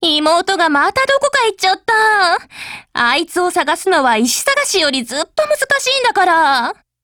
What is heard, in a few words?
DIALOGUE, JP